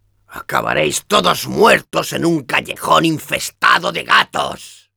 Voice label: taunts, es; "¡Acabaréis todos muertos en un callejón infestado de gatos!"